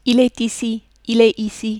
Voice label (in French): il est ici, French